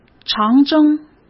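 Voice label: "长征", Mandarin Chinese; chinese flashcards; hsk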